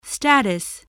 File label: "status", voice